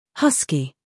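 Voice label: "scandal", American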